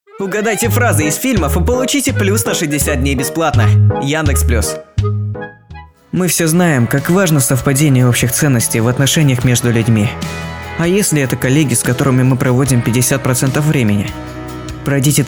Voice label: rus